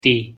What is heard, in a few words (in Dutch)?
"ti", Abenaki; thee-in-andere-talen